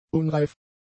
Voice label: "unreif", German; adjectives